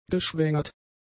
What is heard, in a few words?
"geschwängert", German; adjectives